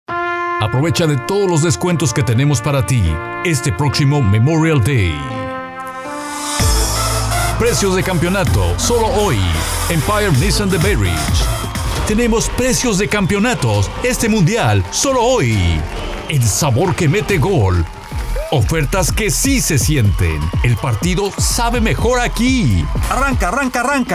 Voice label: Spanish